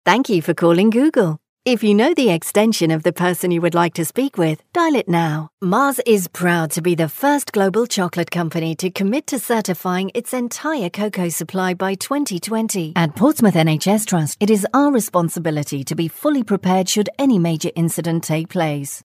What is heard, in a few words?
female